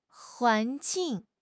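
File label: "huan2 jing4", Chinese; HSK3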